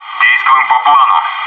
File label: front door, bot